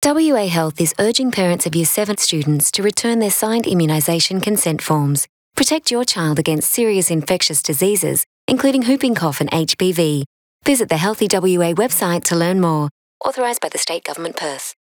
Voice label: metro radio ad; School based immunisation programs; Year 7